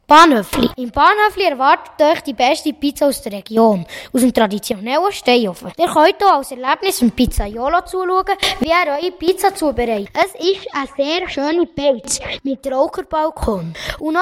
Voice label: Werbung; Bahnhöfli Kerzers; Golaten

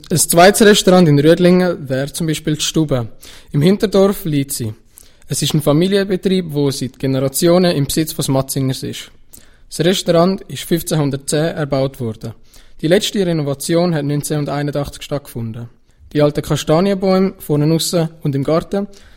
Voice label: Werbung Restaurant; Restaurant Stube; OS Rüdlingen

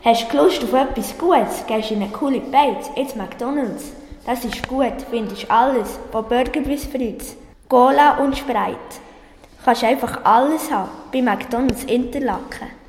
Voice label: Werbung Jingle; Mc Donalds, Interlaken; Unterseen

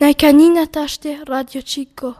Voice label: albanisch

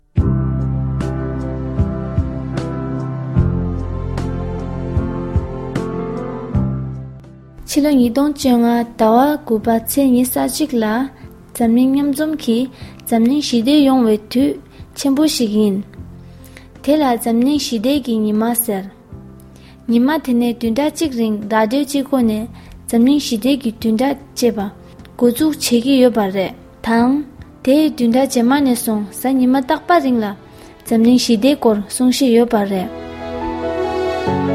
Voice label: Jingle Tibetisch; Weltfriedenswoche Tibetisch

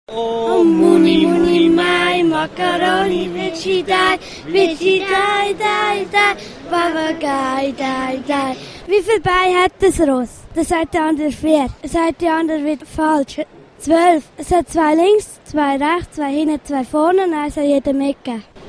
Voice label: offenes mikrofon; pferdewitz; Suisse Toy 2012

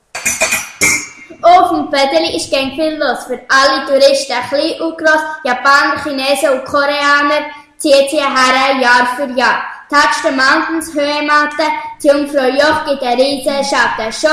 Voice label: jingel; boedeli; Unterseen

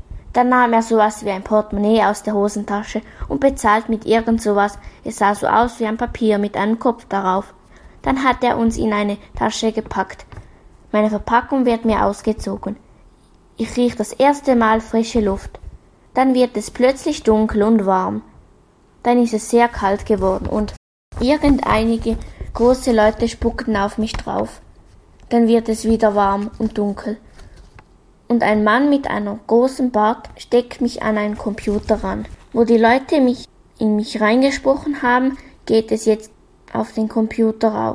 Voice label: kleines Mikrophon

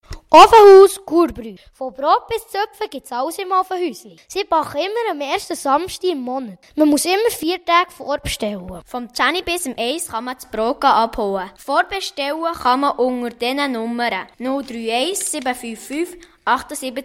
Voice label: Ofenhauswerbung

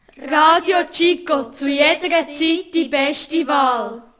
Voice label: Jingle beste Wahl; RadioChico; Unterseen

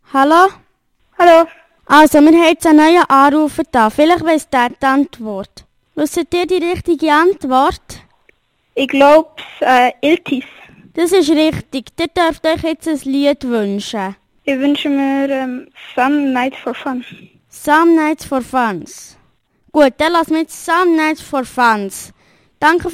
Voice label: Telefonantwort fuer News-Frage; Herrenschwanden

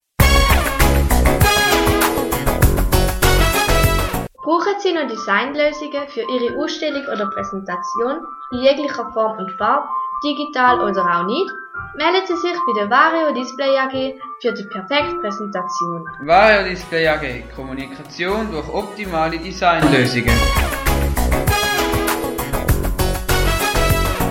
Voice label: Werbespot; Vario Display, Marthalen

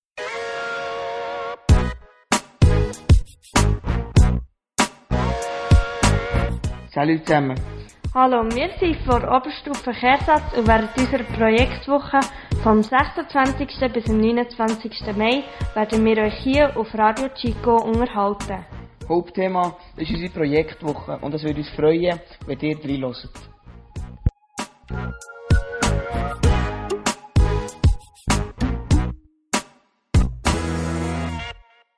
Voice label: Begruessungsjingle; Kehrsatz

